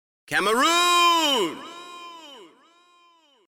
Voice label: funny saying; africa